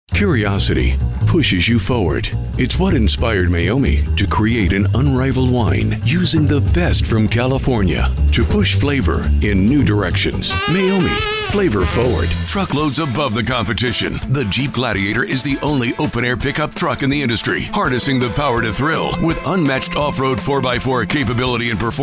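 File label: Commercial Male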